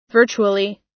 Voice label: "virtually", us

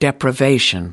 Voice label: "deprivation", us

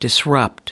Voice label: "disrupt", us

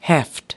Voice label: "heft", us

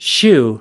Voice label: "shoe", us

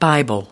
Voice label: "bible", us

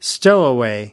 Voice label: "stowaway", us

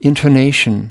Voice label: "intonation", us

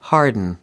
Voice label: "harden", us

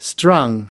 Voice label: "strung", us

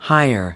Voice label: "higher", us

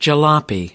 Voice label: "jalopy", us

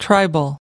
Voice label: "tribal", us

